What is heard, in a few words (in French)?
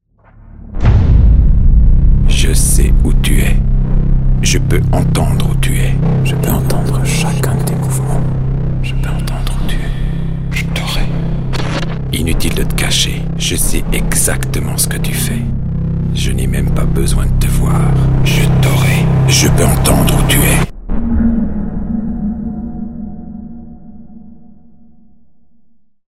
Publicite; trailer